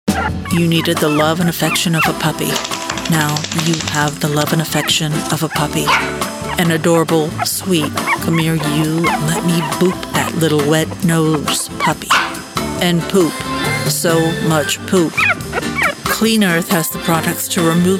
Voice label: Commercial Reel; Demo 2024